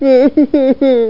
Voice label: "nooooo", English; wormnoiz